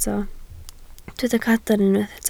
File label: "cadarn", Welsh